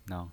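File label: "nang", pinyin voice, Mandarin Chinese, neutral tone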